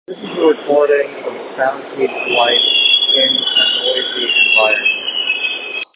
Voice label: Soundpeats Life Noisy Environment